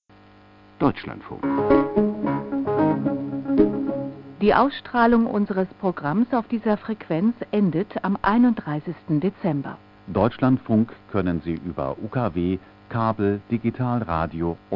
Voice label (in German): dlf lw 207khz ansage senderabschaltung